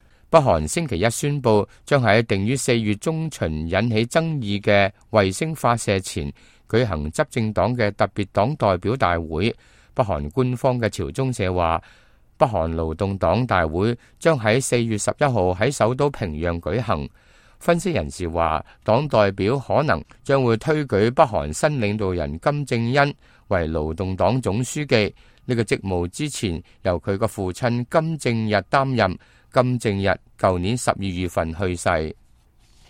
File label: cantonese, news